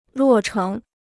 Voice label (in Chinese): "落成", Chinese